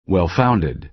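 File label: "well-founded", American English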